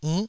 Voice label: "innh9", taiwanese syllables